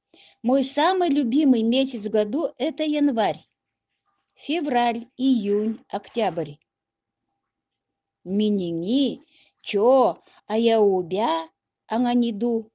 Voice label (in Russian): октябрь, удэгейский